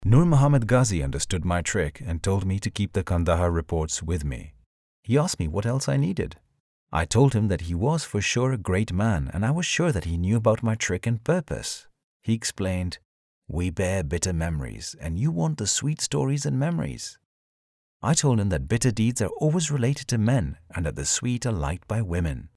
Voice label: english international audiobooks